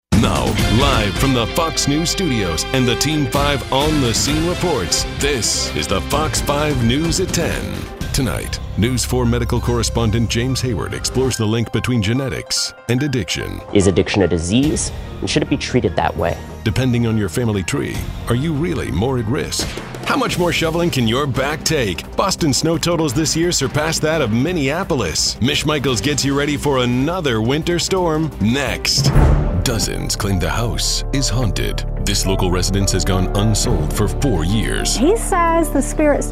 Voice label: tv affiliate men